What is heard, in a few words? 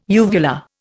"uvula", Indian